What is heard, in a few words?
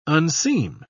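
"unseam", British English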